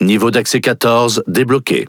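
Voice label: French Speech Access Level; World Of Warships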